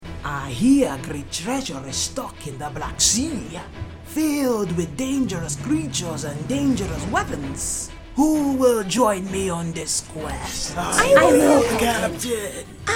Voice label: Animation demo